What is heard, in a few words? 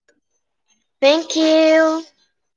voice message; ddnet